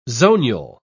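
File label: "zonule", British English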